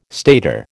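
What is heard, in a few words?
"stator", American English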